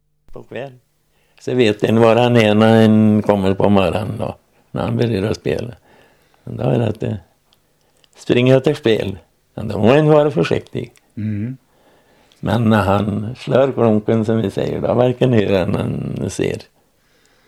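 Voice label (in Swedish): Östervallskog Värmland, dialekter; Svenska sagor; Folkminnen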